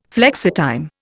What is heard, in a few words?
"flexitime", Indian